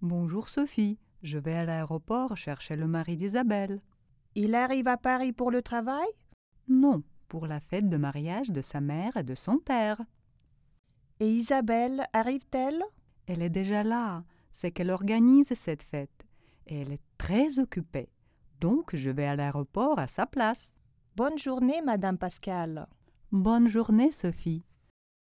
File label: dialog